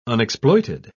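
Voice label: "unexploited", British English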